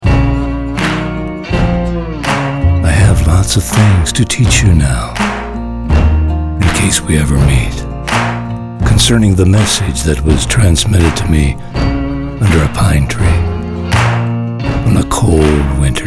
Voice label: CHARACTER, ENGLISH UK MALE